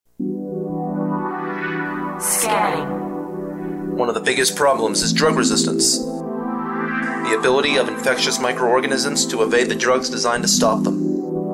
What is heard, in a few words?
narration